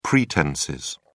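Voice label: "pretences", British English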